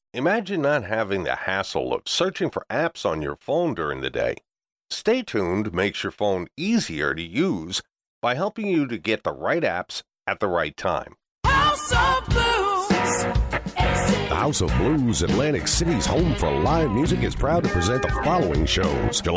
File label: Voice over